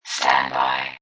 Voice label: Robot Voice "Standby"; Robot Type 1 voice; Lynne Music